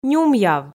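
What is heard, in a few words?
"нюмъяв", Komi, female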